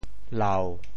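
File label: "lau7", Teochew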